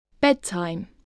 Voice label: "bedtime", English